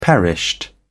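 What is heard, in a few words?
"perished", British English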